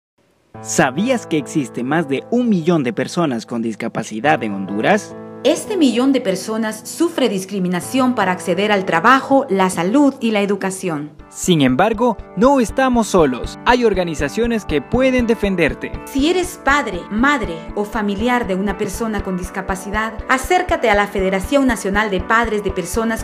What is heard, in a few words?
Radio Spot; FENAPAPEDISH; spots radiales